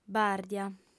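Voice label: "bàrdia", female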